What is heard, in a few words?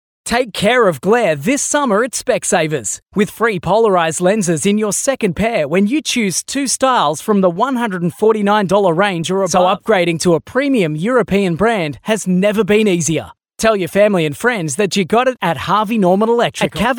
soft sell